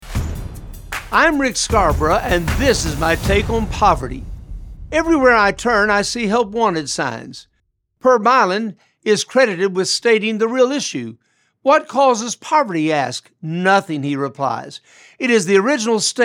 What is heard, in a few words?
Radio Spot; Poverty